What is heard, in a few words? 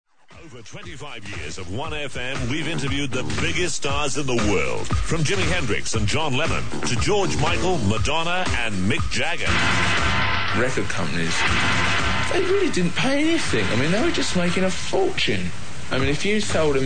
ad books